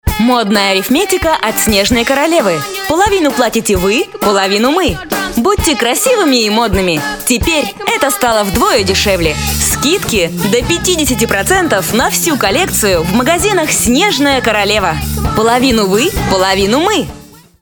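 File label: Russian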